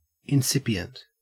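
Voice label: "incipient", Australian English; pronunciations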